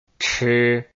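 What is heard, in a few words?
"chi", Chinese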